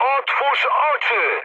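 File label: player friendly fire, mood high, Polish speech; War Thunder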